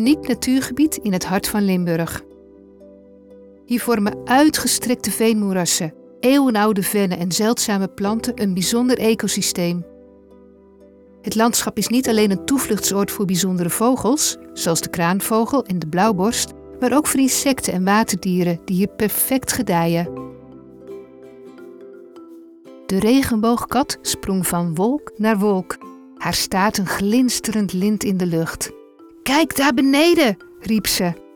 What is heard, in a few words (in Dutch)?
Stemdemo Natuurfilm, warm, vriendelijk en toch helder en licht stemgeluid